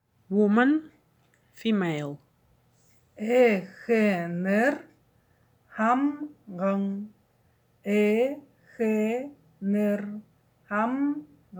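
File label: Buryat Women female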